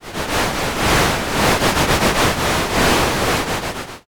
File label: whisper